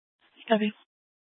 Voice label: Sheriff Dispatch, scanner

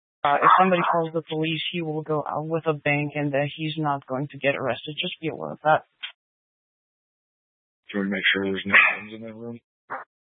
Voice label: Mesa County Sheriff Dispatch

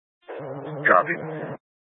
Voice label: Sheriff Dispatch, scanner

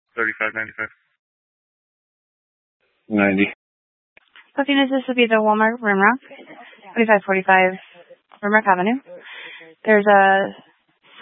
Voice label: Police Department Dispatch; Grand Junction

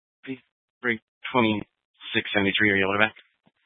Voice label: Sheriff Dispatch; Mesa County

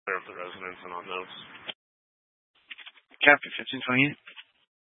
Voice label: Sheriff Dispatch, scanner

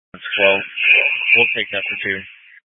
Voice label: Fire Department Dispatch; Grand Junction

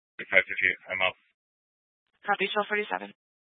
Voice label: Police Dispatch

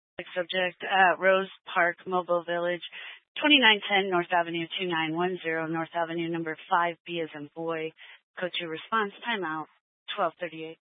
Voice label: Fire Department Dispatch, scanner; Grand Junction

